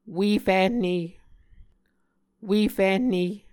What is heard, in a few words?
"ue fihne", Muscogee